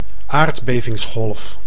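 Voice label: "aardbevingsgolf", Dutch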